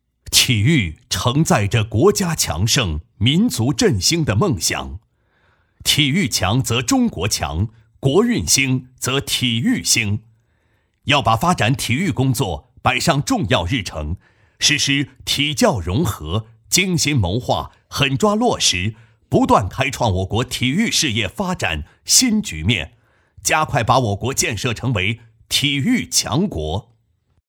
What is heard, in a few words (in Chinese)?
男, 宣传片, 乒乓球特色, 学校; 沙一小